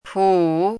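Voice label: "pǔ", Chinese; 朴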